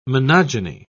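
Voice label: "monogyny", British English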